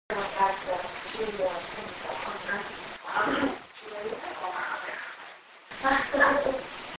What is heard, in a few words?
male voice; Bremerton